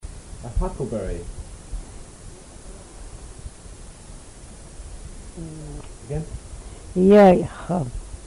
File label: blue black huckleberry, Twana